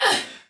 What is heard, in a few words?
Attack, Soldier Female, Battle; Sinecure